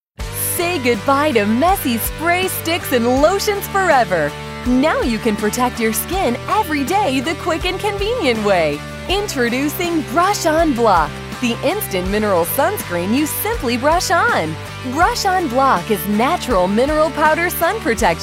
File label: Hard Sell